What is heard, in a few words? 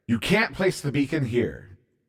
Invalid Placement, CTF Announcer